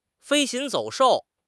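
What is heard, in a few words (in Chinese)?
"飞禽走兽", Chinese; HSK6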